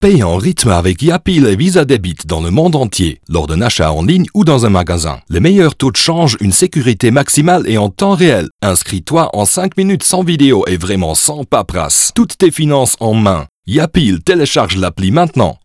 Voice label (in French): Spotify FR; YAPEAL